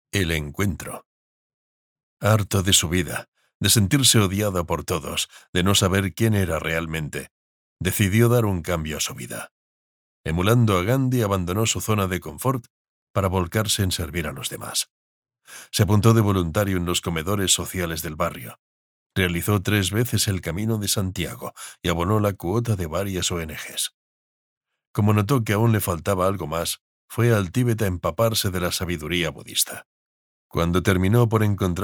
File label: spanish audiobooks